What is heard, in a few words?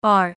slot fruits female